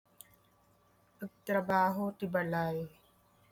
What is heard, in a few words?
Ilocano